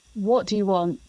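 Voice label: Voice Acting es, female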